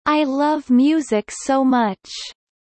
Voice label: low; studying abroad